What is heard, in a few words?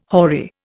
"hoary", Indian